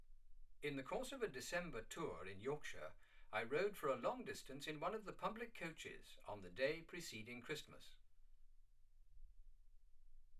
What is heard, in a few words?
male speech english